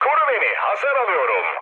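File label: aircraft damaged, mood low, Turkish; War Thunder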